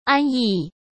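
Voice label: "安逸", Mandarin Chinese; chinese flashcards; hsk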